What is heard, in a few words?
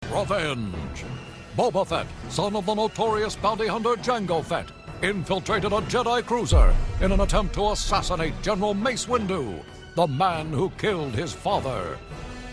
narrator